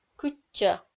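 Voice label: "кытчӧ", Komi-Zyrian, female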